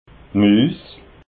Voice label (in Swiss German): "muus", alsace